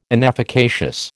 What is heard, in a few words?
"inefficacious", American